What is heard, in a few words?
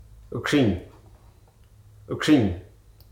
"fresh", Hruso